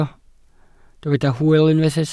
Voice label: "hwyl", Welsh